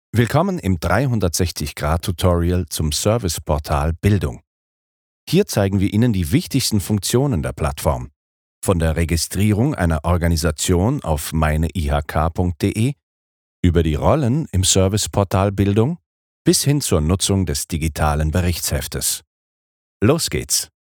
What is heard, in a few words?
e-learning